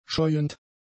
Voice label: "scheuend", German; adjectives